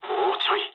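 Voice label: near waypoint mood low, speech japanese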